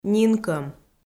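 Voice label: "нинкӧм", Komi-Zyrian, female; kpv audio dict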